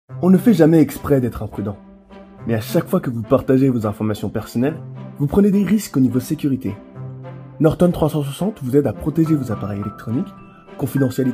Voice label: French Voice

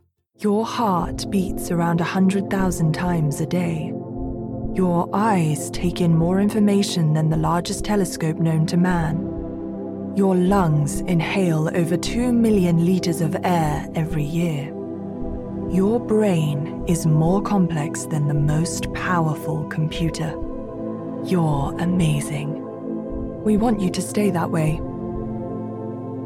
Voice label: Commercial Voice

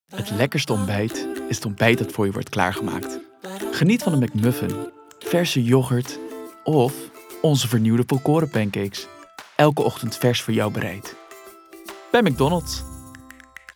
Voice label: COMMERCIAL DUTCH

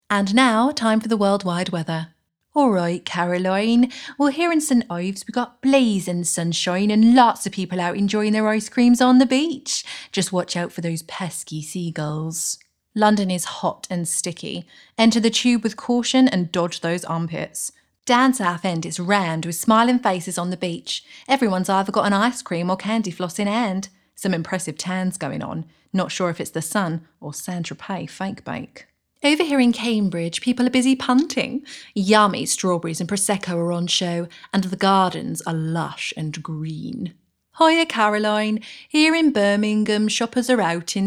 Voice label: Accent

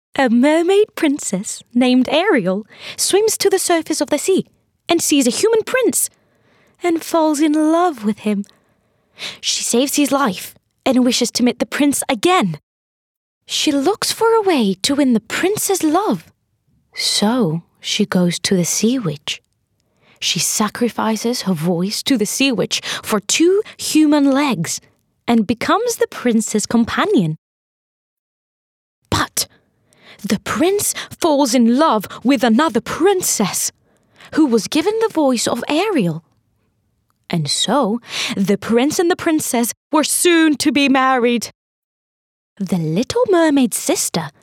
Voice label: Narration Kids Book

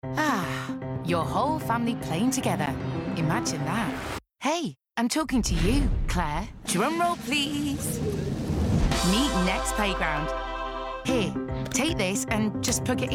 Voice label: Commercial Reel

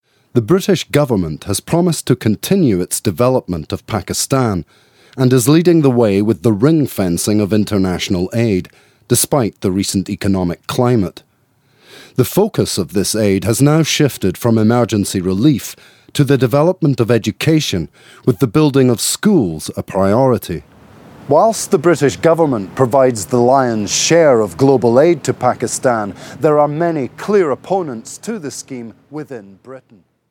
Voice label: documentary narration; Pakistan: One Year On

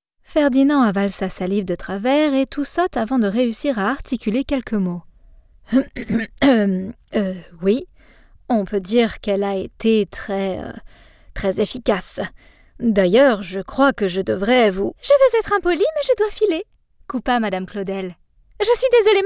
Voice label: NARRATION French

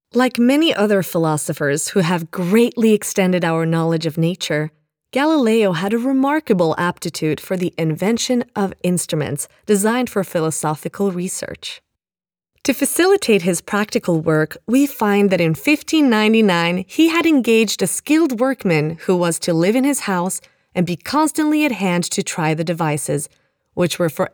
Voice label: English Narration Non Fiction

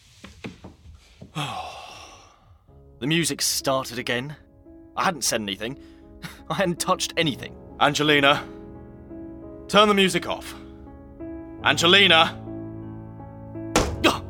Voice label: Drama Voice

